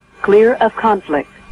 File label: Female Clear of conflict